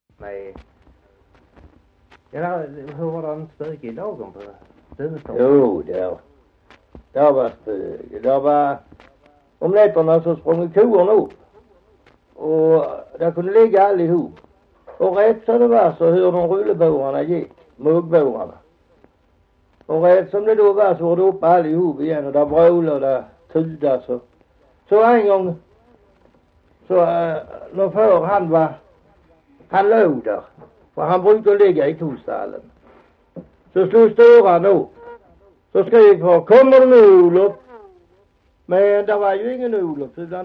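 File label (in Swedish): Hasslöv Halland, dialekter svenska sagor; Folkminnen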